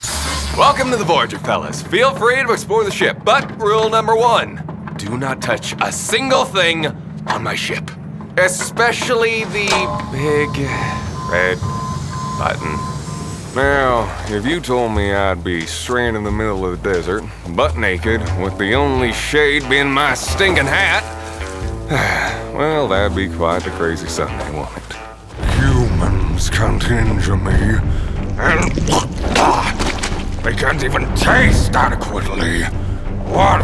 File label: Character Demo